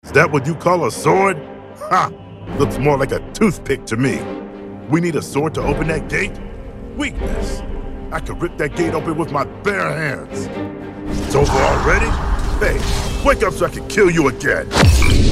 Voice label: video game reel, voiceover

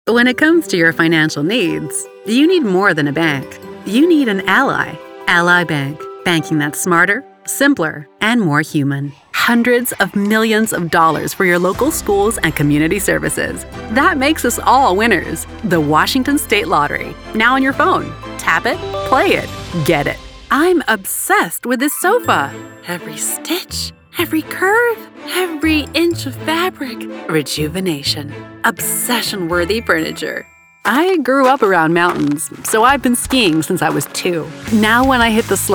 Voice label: voiceover commercial showreel commercial

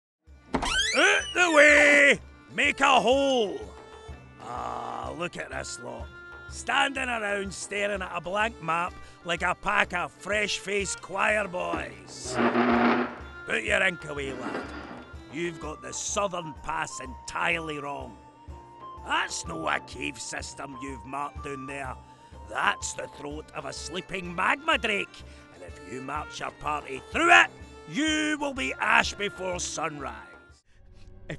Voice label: Scottish voice reel

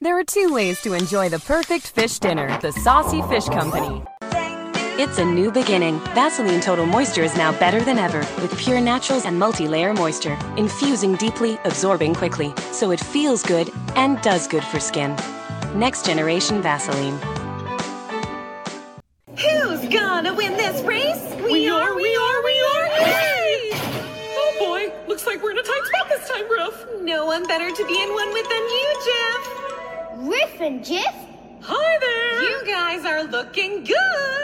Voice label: usa mix; US Accent Showreel

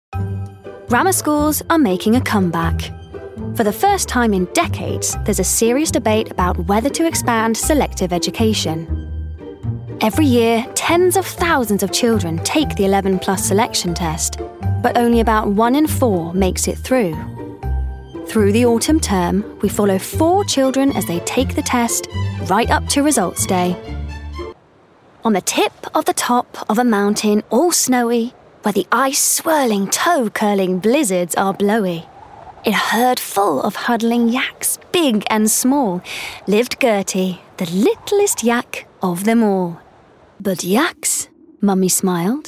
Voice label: voiceover narration

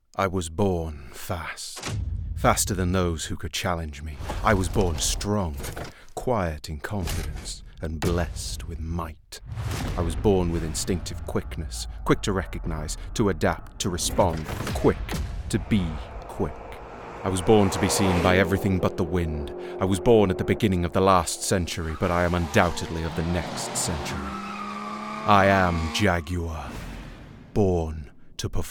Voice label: voiceover commercial showreel

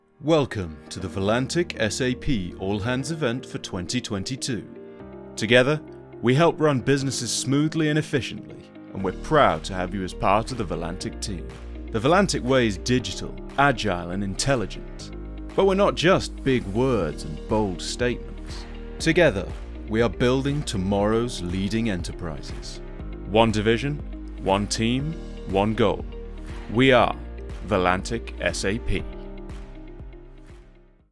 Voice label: voiceover corporate

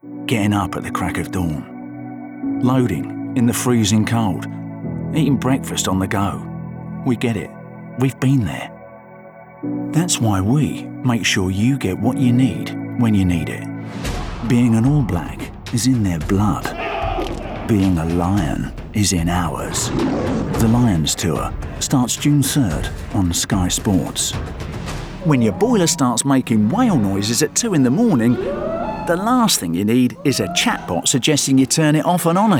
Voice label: commercial, voiceover

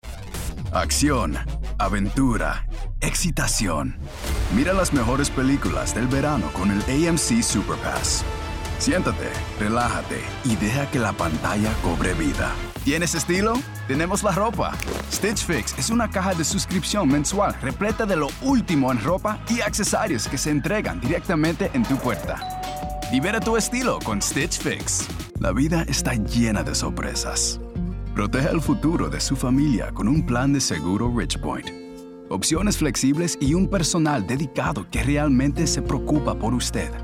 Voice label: commercial spa, voiceover

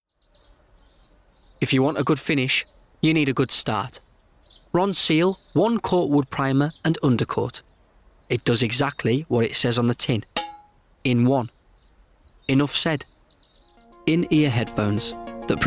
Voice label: commercials montage, voiceover

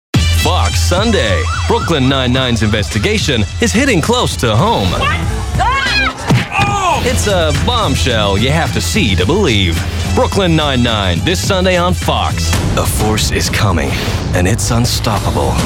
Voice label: promos, Voiceover

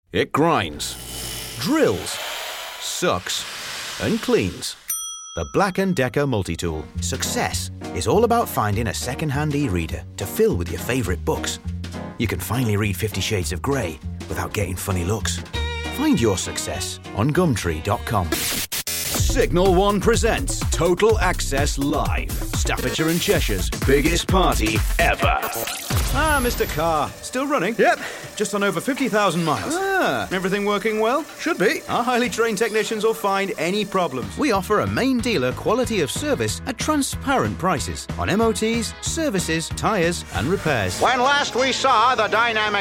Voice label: voiceover commercial showreels

